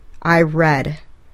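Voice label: "I read", English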